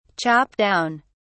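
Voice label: "chop down", British English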